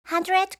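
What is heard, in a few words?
"100", English; eng suuji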